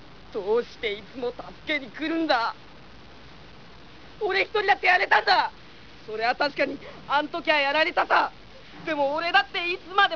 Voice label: first speech, japanese